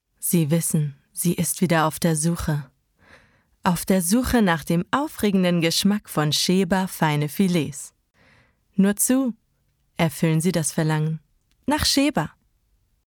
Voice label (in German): Spot; Sheba; LOFT VOICES